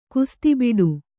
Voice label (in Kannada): "ಕುಸ್ತಿಬಿಡು", Kannada